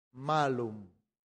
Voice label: "malum", latin; santillana

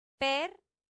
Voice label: "per", latin; santillana

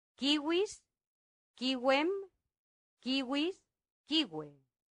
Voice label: "civis", latin; santillana

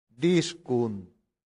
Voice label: "discunt", latin; santillana

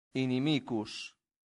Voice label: "inimicus", Latin; santillana

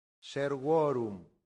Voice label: "servorum", latin; santillana

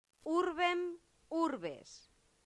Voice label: "urbem urbes", latin; santillana

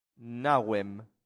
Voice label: "navem", latin; santillana

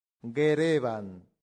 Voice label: "gerebant", latin; santillana

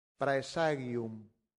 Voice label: "praesagium", latin; santillana

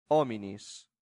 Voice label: "hominis", latin; santillana

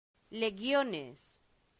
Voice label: "legiones", latin; santillana

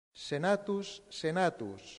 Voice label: senatusus, latin; santillana

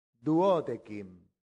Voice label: "duodecim", Latin; santillana

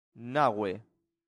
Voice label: "nave", latin; santillana

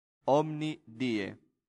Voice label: "omni Die", Latin; santillana

